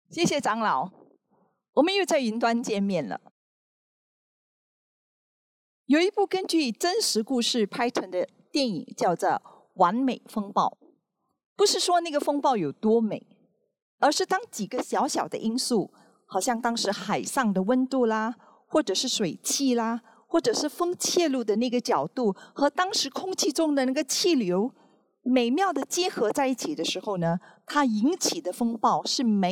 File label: Sermon; 2020年岁末感恩聚